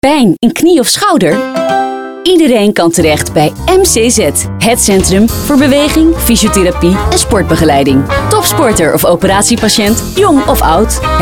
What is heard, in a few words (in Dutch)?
Audiocommercial; Medisch entrum Zuid 2017; Prima Reclame